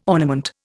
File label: "ornament", Indian